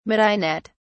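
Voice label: "midinette", British English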